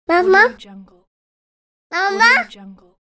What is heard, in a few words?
cute toddler boy calling mama